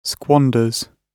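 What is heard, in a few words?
"squanders", British English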